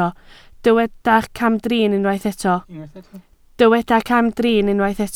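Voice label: "camdrin" Welsh, spoken word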